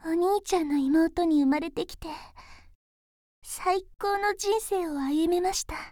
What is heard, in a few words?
sad